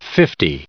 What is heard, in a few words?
"fifty", en-us